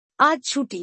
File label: Today is a holiday. Bangla; Time and Routine